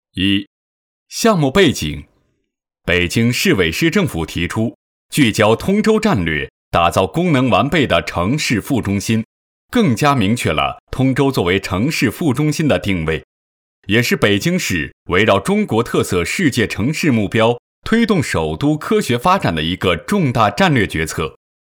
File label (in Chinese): 男 项目解说 道路工程; 广渠路东延道路工程